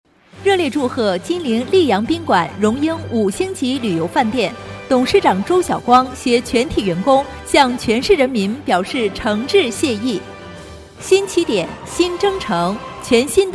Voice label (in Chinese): 女 宾馆（激情）